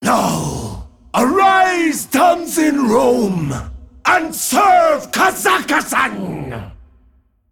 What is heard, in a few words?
Male Dragon